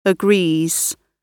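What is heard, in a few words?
"agrees", British English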